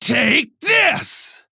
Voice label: Female Orc Attack